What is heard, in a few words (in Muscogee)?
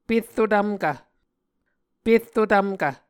"perro tvmkv", Muscogee